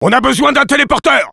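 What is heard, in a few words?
need teleporter, French; Sniper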